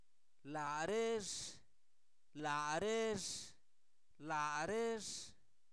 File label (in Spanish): ellos, Zapoteco, santo domingo albarradas; INALI; pronombre libres